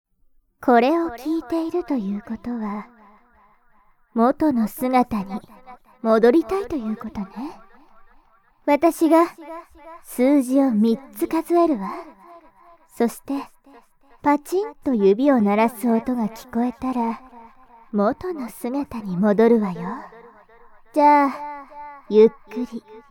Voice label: 催眠解除, ASMR

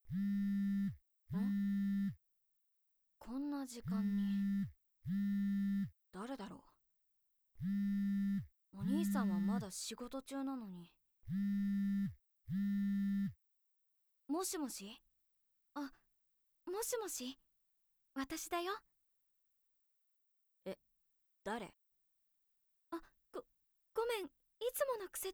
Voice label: 親戚のお姉さん, ASMR

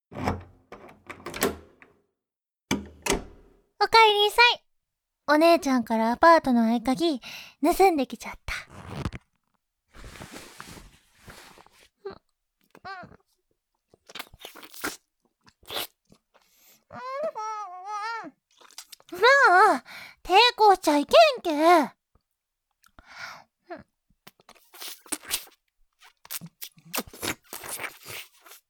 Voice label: 広島弁; 玄関あけて９秒で即尺からのおねだりクパァ; 小悪魔NTR

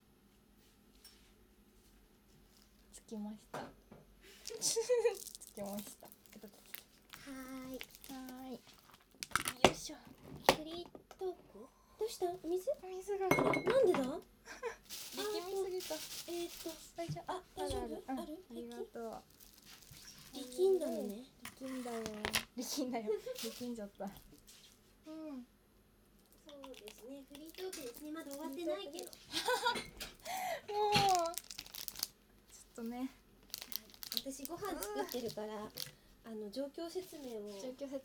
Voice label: フリートーク, ASMR

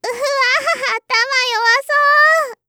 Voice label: うわ～頭弱そう～, 罵倒

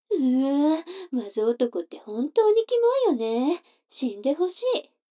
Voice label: 女の子 台詞

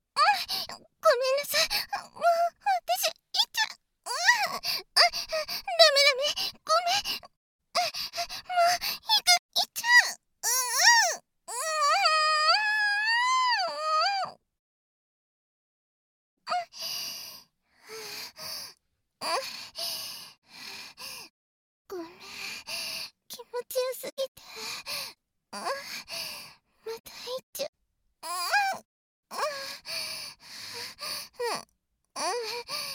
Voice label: 彼女とセックス後のお休みのやりとり（バイノーラルマイク収録 効果音なし）

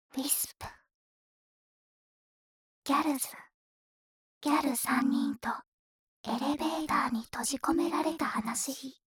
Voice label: タイトルコール, ASMR

